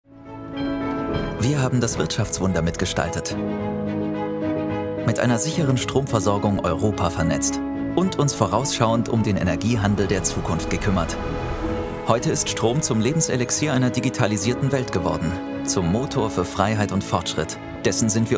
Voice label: german corporate

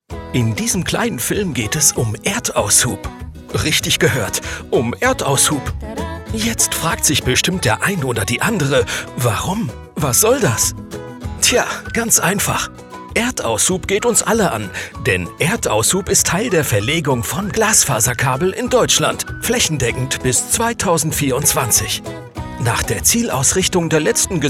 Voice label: german explainer videos, voice actors

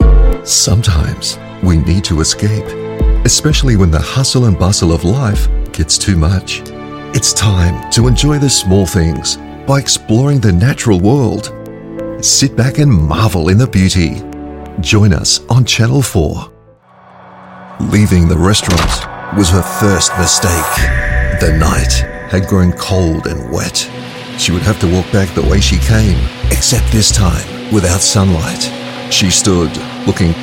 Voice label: English Australian promos

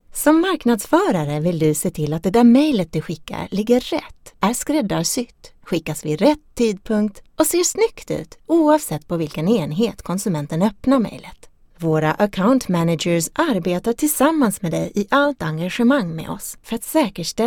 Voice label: swedish corporate videos

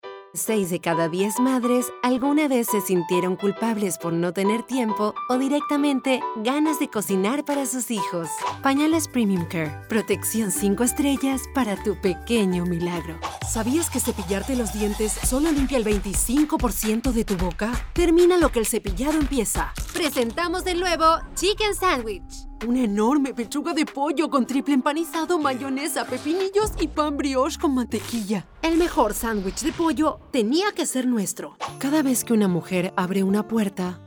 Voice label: spanish latin american commercial; Soy una joven locutora argentina, con experiencia en teatro escénico y musical

